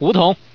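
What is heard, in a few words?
"5 tong", Chinese, male; 男; 欢乐麻将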